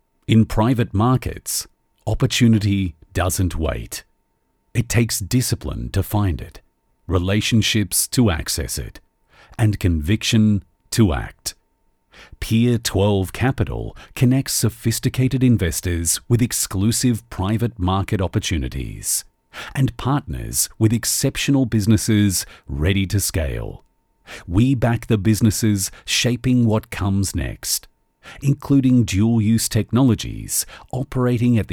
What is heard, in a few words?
Serious corporate